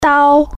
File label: "knife", Chinese